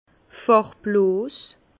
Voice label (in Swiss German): "farbloos", Bas Rhin; vin